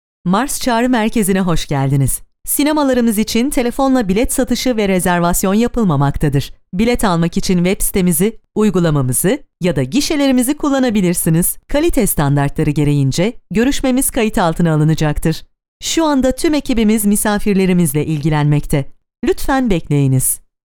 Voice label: turkish